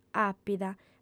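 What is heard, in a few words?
"àpida", Sardinian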